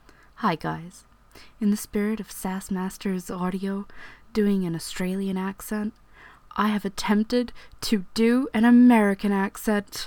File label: Aussie Attempts an American Accent